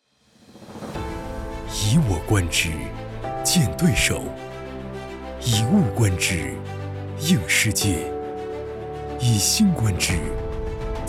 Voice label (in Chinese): 宣传片; 北极熊